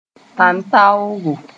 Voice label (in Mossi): "tãntãoogo", moore; trumpet